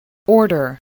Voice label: "order", English voice